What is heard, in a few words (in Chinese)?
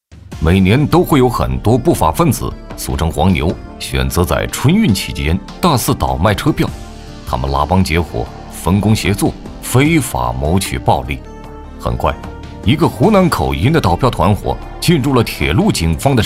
男 栏目 刑事案件类栏目 严肃 快速, 国语男声配音